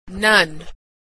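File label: "none", American English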